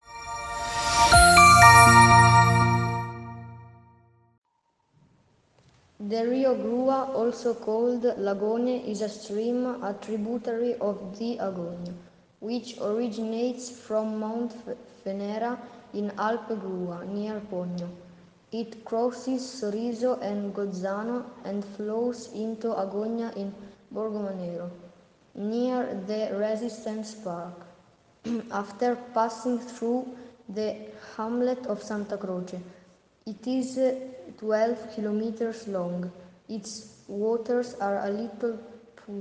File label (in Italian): inglese